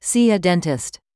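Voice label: "see a dentist", English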